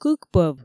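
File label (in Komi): кык пӧв, Komi, female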